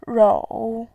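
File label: "rou", Mandarin Chinese, third tone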